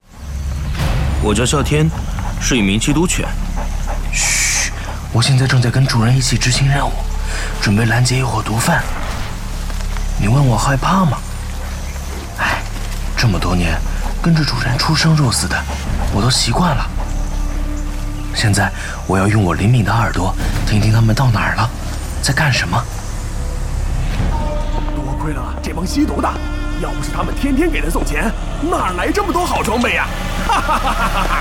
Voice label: 男 角色 内心 自我剖析; 缉毒犬的告别·啸天

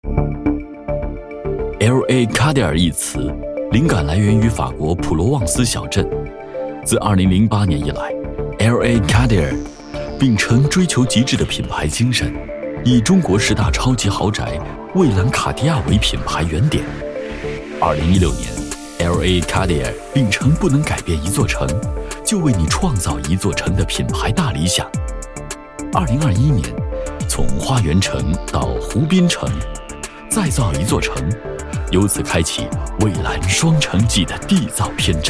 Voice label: 男, 品牌概念片, 地产, 企业宣传; 蔚蓝卡地亚

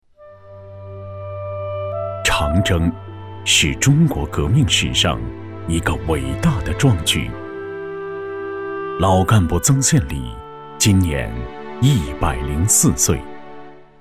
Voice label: 男 人物介绍 历史人物; 红军长征-曾宪礼

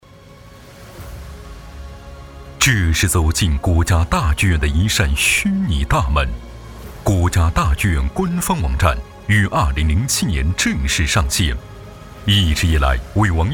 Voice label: 男 宣传片; 国家大剧院

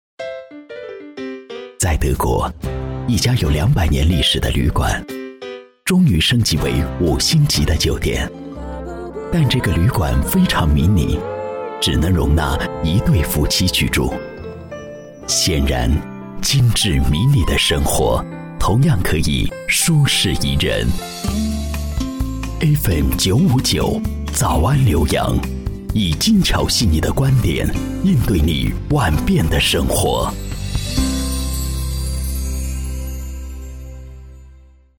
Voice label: 男 优雅唯美 电台片头 成熟

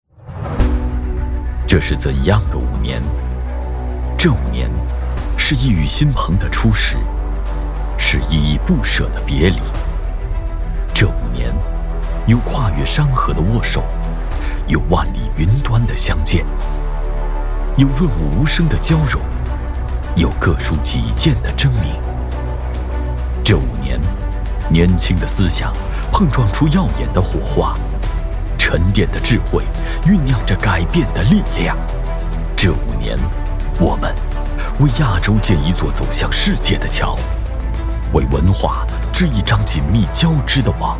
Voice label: 男 宣传片 大气干练 稳重 沉稳

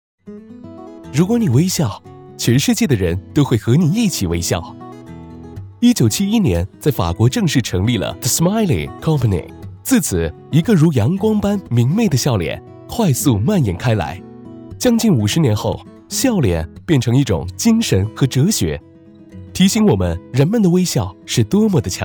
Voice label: 男 广告TVC; 法国smile

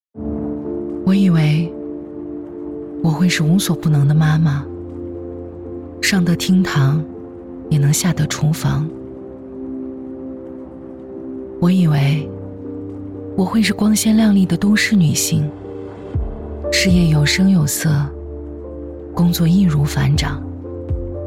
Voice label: 女 内心独白 都市女性; 成功人士 妈妈 独立

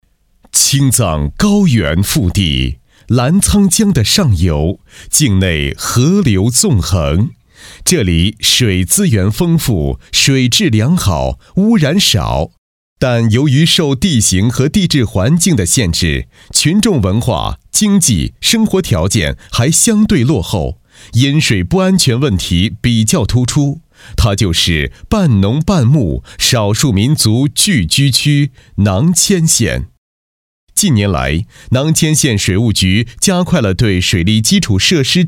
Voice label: 男 柔和温暖; 囊谦县水利

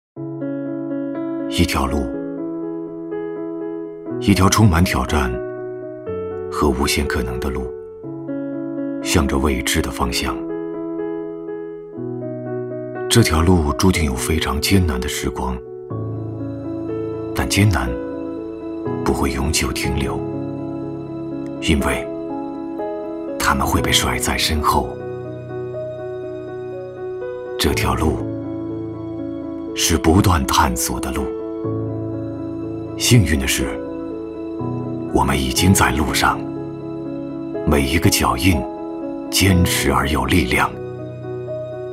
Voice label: 男 宣传片 低调叙述

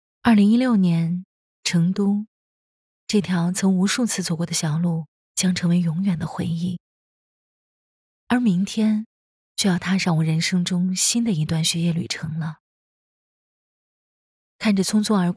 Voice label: 女 微电影 自然旁白 Dry

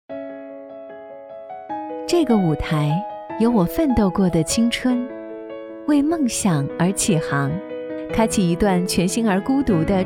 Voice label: 女 微电影 专访 独白 亲切 温柔 知性

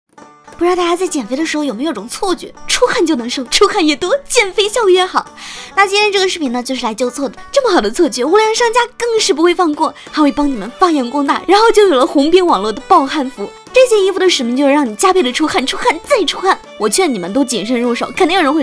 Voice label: 女 素人; 病毒抖音传播

